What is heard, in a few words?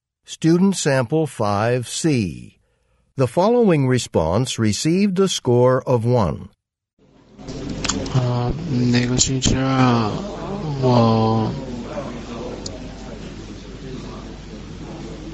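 chinese conversation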